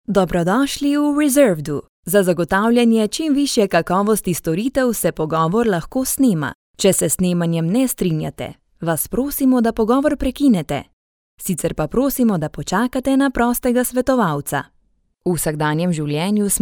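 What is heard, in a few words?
Slovenian female; lektoring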